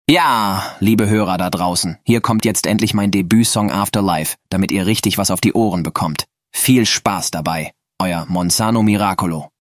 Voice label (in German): ansage; Monsano Miracolo; interview